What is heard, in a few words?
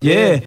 "yeah", group of men